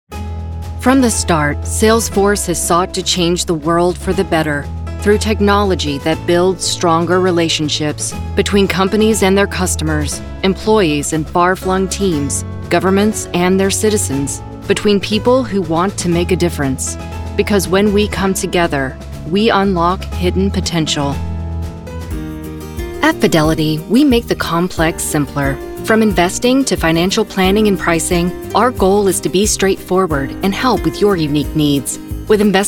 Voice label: Corporate